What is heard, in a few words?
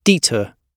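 "detour", British English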